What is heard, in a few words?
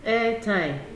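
"airtime", Nigerian Pidgin, female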